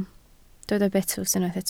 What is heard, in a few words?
"betws", Welsh